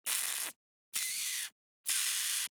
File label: HELLO JP; girlsfrontline voices jp